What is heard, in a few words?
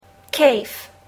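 "cave", English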